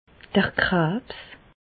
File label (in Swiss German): "krabs", Bas Rhin; medecine; cancer